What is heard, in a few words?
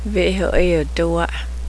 cow, cheyenne language; vé'ho'éotoa'e